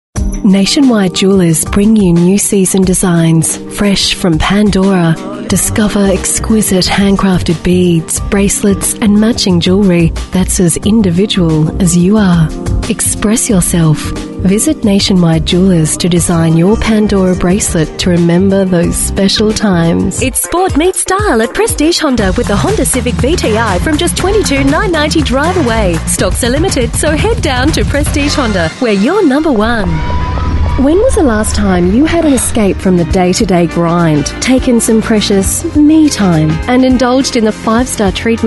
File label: female talent retail